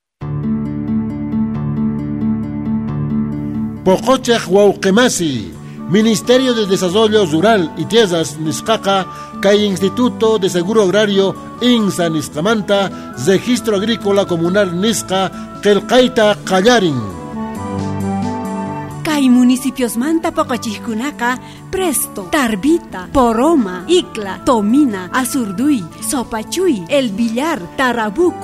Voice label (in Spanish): QUECHUA CHUQUISACA